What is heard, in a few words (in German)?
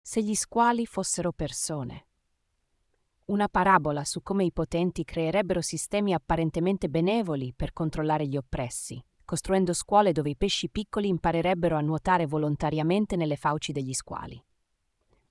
italienisch